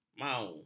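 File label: "mau", Malay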